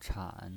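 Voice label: "chan", Mandarin Chinese, third tone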